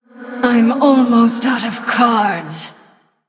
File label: Female Human LowCards; en